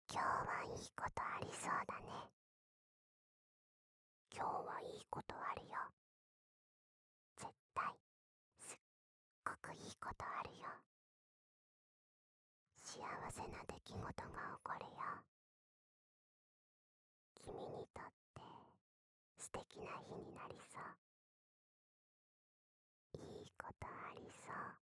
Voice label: 囁き 左耳 今日はいいことある

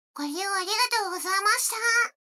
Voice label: 女の子 台詞, ASMR

